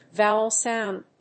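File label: "vowel sound", English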